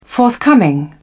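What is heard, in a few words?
"forthcoming", English, British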